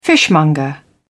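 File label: "fishmonger", British English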